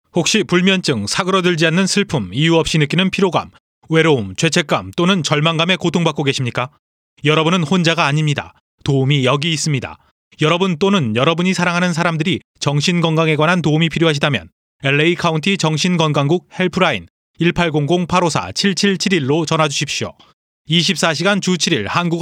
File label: Korean Radio Ad; 30 seconds